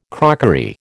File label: "crockery", American